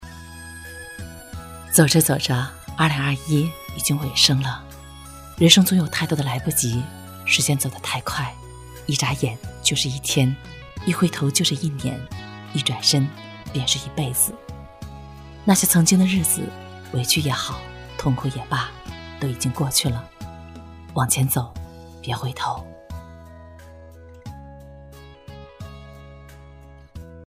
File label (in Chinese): 女 情感美文 亲切扎心 独白